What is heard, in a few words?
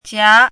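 "jiá", Mandarin Chinese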